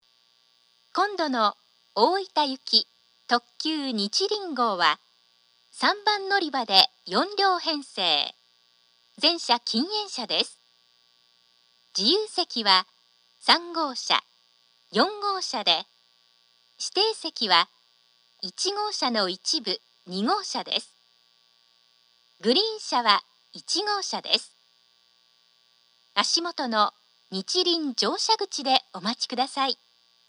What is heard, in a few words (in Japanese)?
案内放送; 宇佐; にちりん 大分行き